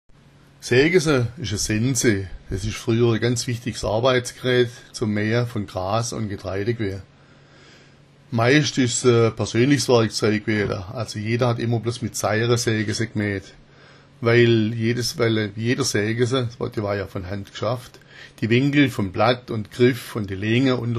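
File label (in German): segesa, schwäbisch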